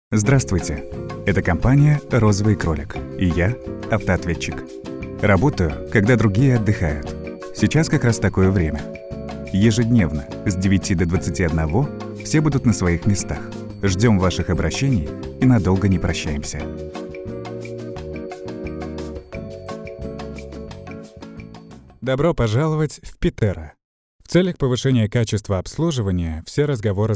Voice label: IVR